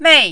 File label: "mei4", mandarin